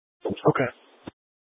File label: Incident Tac 3, scanner